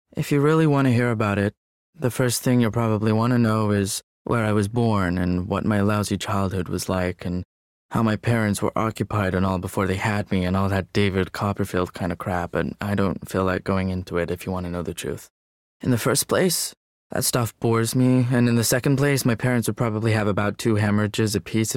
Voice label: Book Reading, American; Capture In The Rye